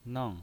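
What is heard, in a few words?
"neng", pinyin voice, Mandarin Chinese, fourth tone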